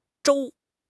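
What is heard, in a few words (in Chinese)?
"舟", Chinese; HSK6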